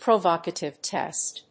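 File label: "provocative test", English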